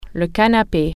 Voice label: "sofa", French

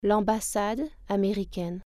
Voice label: the american embassy, French

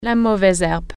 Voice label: "weed", French

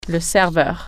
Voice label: "waiter", French

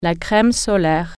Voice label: "sunscreen", French

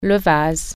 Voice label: "vase", French

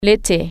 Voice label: "summer", French

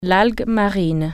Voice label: "seaweed", French

